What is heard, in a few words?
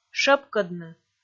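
"шӧпкӧдны", Komi, female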